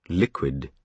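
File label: "liquid", British English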